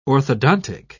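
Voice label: "orthodontic", British English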